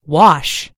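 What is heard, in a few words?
"wash", American English; gcompris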